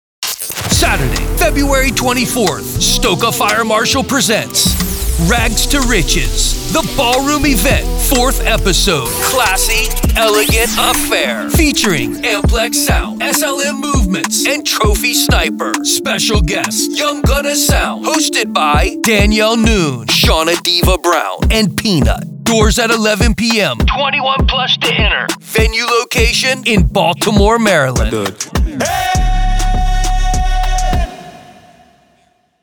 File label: Promo Ad